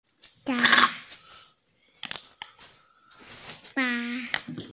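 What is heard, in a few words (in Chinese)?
"sha1fa1", Mandarin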